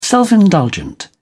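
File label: "self indulgent", British English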